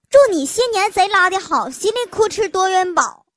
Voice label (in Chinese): 童声东北话 红包